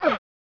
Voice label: "Ow!", Male